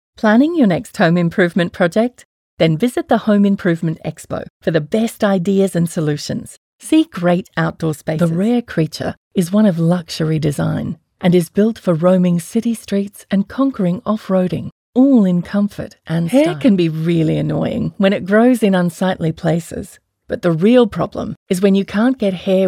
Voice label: Soft Sell